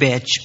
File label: "bitch", expletives